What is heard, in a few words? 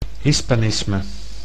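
"hispanisme", Dutch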